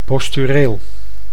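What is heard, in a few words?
"postureel", Dutch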